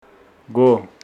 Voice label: Homshetsi there is

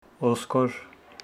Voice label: Homshetsi bone

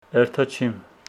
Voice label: Homshetsi i am not going

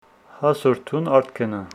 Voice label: Homshetsi today you go to the field

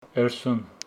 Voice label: Homshetsi thrity

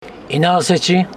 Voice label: Homshetsi he doesnt tell

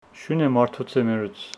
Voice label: Homshetsi the dog killed the man

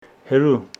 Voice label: Homshetsi far

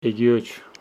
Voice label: Homshetsi i did not come

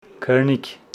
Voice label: Homshetsi sweat

